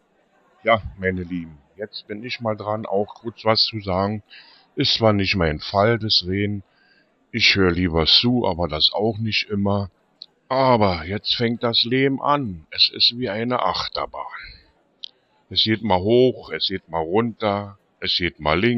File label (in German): Hoerprobe Opa